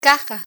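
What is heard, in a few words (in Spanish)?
"caja", Spanish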